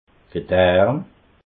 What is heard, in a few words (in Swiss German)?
"gedarm", alsace